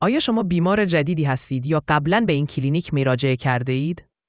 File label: question persian